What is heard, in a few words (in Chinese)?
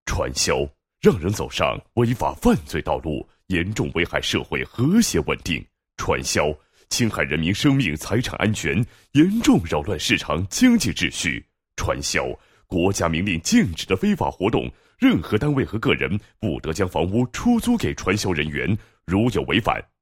男 公益广告, 配音; 观山湖区世纪城打击传销